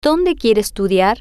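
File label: "Donde Quiere Estudar", Spanish, female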